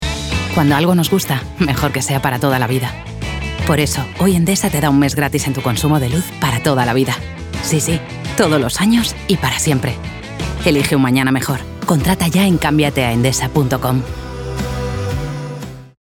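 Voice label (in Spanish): generico España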